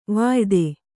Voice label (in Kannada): "ವಾಯಿದೆ", Kannada